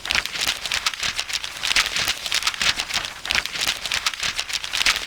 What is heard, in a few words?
read